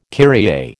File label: "Kyrie", American